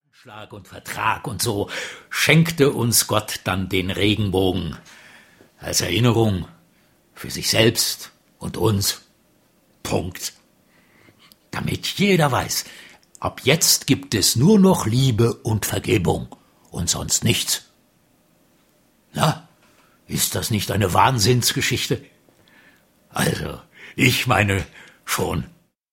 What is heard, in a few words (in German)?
Erzaehler